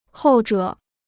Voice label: "后者", Chinese